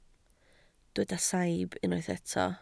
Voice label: "saib", Welsh

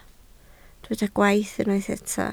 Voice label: "gwaith", Welsh

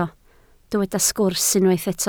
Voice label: "sgwrs", Welsh

